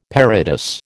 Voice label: "parados", American

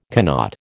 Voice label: "cannot", American

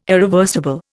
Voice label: "irreversible", Indian

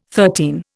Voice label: "thirteen", Indian

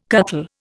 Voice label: "cuttle", Indian

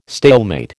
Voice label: "stalemate", American

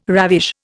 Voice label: "ravish", Indian

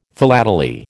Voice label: "philately", American

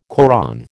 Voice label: "Koran", American

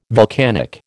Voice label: "vulcanic", American

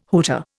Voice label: "hooter", Indian

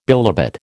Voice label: "bilobate", American

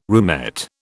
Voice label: "roomette", British

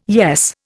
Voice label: "yes", Indian

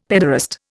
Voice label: "pederast", Indian; Dictionary

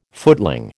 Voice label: "footling", American

